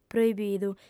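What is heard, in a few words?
"proibidu", Sardinian, female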